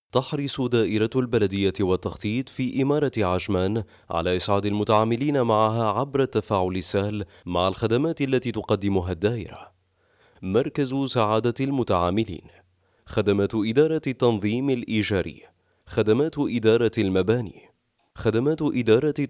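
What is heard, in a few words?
Arabic, General; GoLocalise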